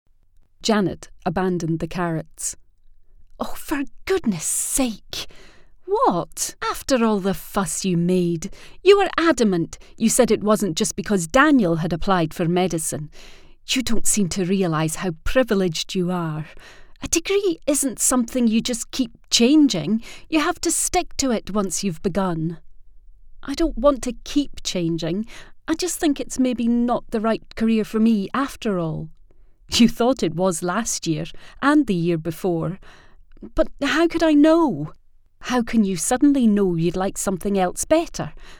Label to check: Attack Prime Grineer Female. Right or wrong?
Wrong